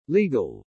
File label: "legal", english